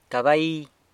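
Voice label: "cabai", San Lucas Quiaviní Zapotec; horse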